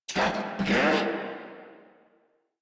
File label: Surprised Crewmen, en